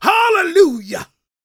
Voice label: PREACHIN, Gospel, PREACHER

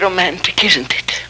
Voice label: Romantic

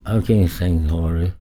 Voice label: talk, spoken, blues soul

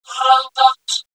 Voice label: ROBOT, VOCODA SPEECH; Zero-G Ambient 1

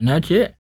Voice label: SPOKEN, Blues Soul, TALK; Spectrasonics; Vocal Planet; EL SPOKEN

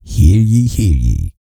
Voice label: E SPEECH, Vocal Planet R&B Dance

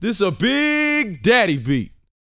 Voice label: BOAST MF; Vocal Planet; R&B Dance

